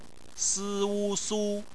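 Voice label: "su", Mandarin Chinese; phonetics; bpmf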